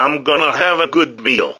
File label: battlecry; garfield